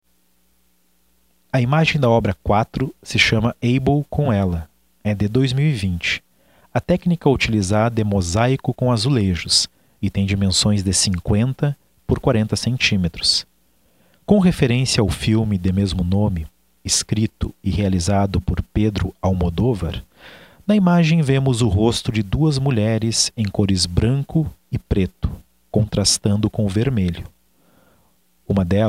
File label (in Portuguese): audiodescrição; galeria virtual